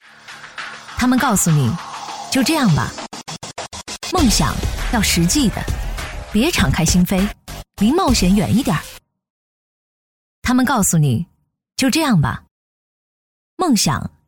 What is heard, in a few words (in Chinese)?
女 品牌广告 自然 非常规 励志 坚定 素人; 天生主角概念